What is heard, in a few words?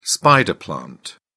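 "spider plant", British English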